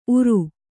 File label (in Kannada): "ಊರು", Kannada